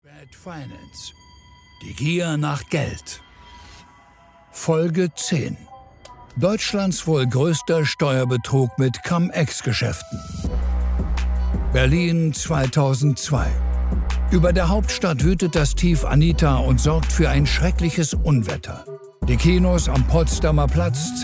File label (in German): Podcast; LOFT VOICES